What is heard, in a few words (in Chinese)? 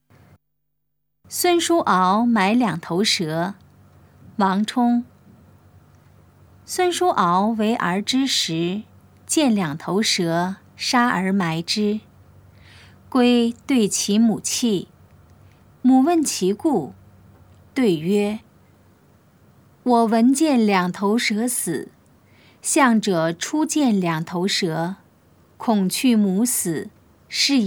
putonghua; 孫叔敖埋兩頭蛇; 普通話